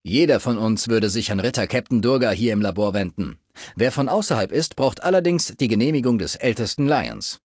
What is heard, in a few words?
Male adult default dialogue